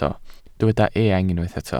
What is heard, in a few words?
"eang", welsh